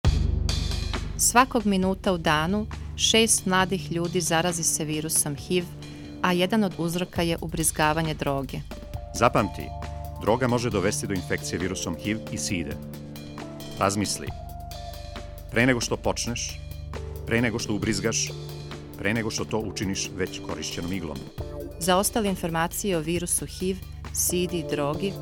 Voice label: spot serbian music